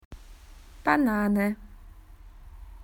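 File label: "banana", Australian English; PictureDictionary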